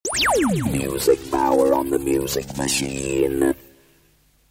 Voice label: 80s jingle; MMachine

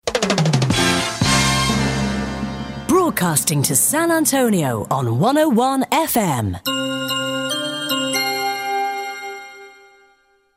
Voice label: Female; CastingOn101FM; Rad Sunshine

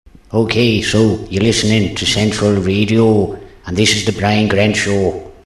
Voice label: voice irish short; Brian Grant Show; Central Radio

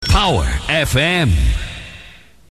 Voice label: Power FM, Jingles

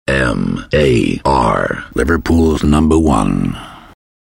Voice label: M.A.R. LIVERPOOLS #1, Dry Voiceovers; MAR 1386 AM & 105 FM

